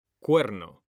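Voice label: "CUERNO", Spanish, male; mekanta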